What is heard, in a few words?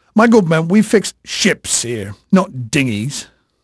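English male, voice; Pirates Of The Caribbean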